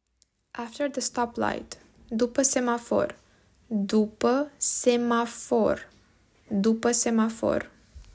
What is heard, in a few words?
After the stoplight, romanian